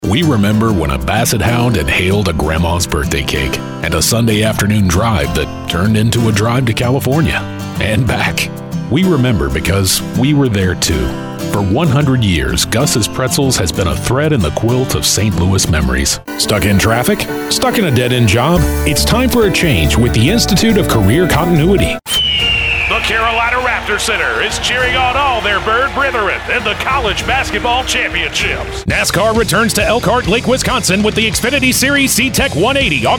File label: commercial